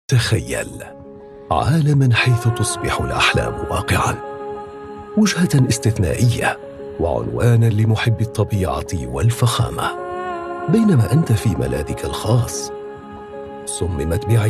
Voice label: male arabic tv commercial